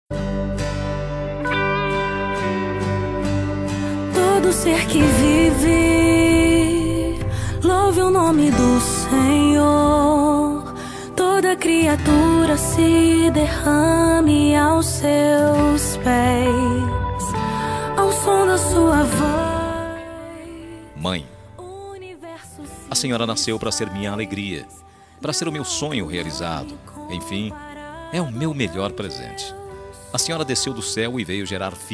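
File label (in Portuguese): Dia das Maes male, telemensagem; Dia das Maes Sing. MASC; telemensagem dia das maes filha e filho enviam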